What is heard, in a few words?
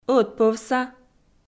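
"ӧтпӧвса" Komi, female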